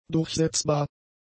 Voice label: "durchsetzbar", german; adjectives

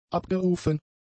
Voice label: "abgerufen", German; adjectives

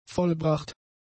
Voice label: "vollbracht", German; adjectives

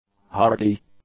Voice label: "hardy", English; adjectives

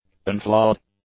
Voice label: "unflawed", english; adjectives

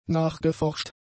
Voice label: "nachgeforscht", German; adjectives

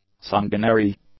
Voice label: "sanguinary", English; adjectives

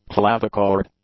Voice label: "clavicord", English; nouns

